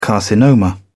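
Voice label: "carcinoma", British English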